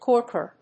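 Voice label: "corker", English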